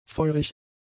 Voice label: "feurig", German; adjectives